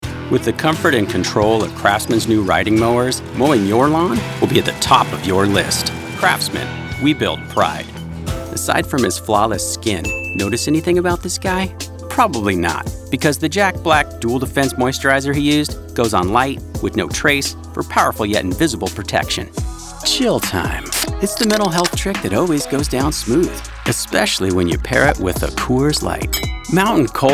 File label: Commercial